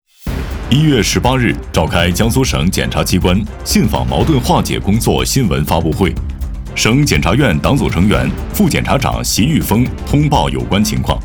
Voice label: 新闻; 新闻播报 新闻联播

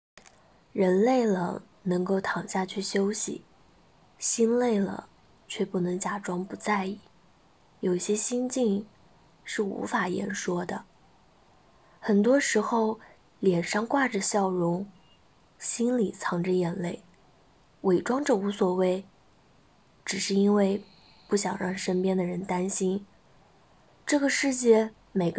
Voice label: 电台

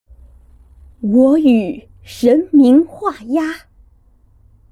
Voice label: 气势

